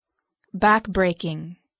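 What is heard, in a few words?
"backbreaking", English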